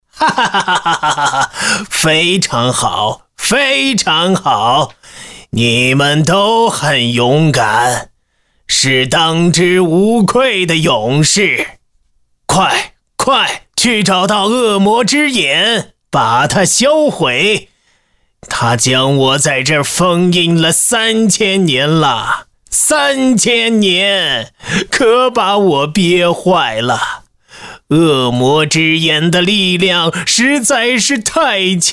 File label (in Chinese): 男 模仿 恐怖动画人物 蛇; 神庙蛇